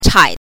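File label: "chai", Mandarin Chinese pinyin, neutral tone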